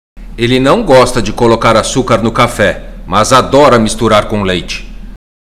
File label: Portuguese Listening; Portuguese Test